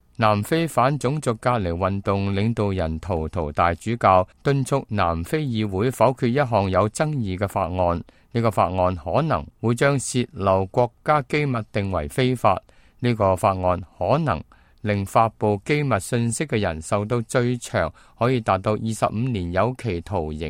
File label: cantonese, news